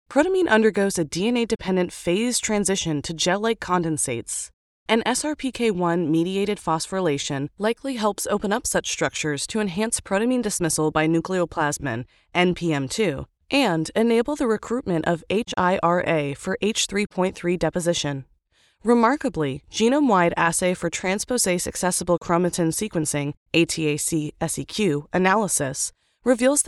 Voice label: Medical Narration; Med Tech